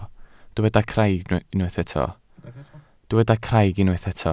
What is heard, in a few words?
"craig", Welsh